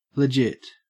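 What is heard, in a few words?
"legit", Australian English; pronunciations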